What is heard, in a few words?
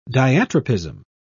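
"diatropism", British English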